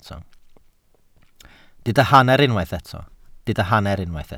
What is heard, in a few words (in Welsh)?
"hanner", Welsh